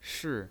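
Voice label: "shi", Mandarin Chinese pinyin, fourth tone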